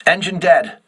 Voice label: voice message engine destroyed, crew ships english